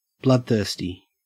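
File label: "bloodthirsty", English, Australian accent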